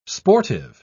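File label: "sportive", British English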